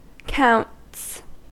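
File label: "counts", American English; pronunciations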